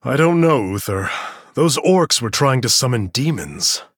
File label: Male Human Victory; Arthas; Uther